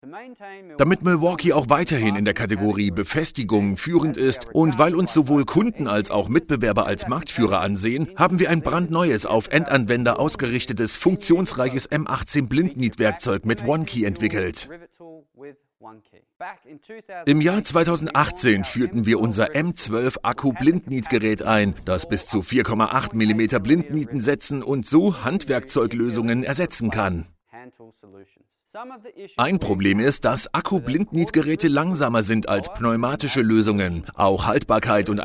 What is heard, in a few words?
german tv shows